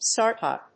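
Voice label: "startup", English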